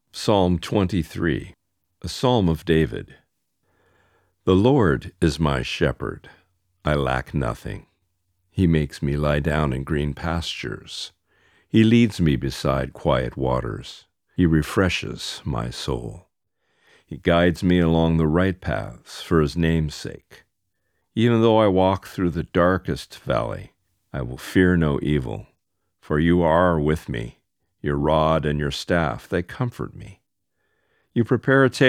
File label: psalm 23 mix fr audiobook